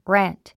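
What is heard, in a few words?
"rant", English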